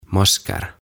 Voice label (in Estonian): "Masker" "Naamarit"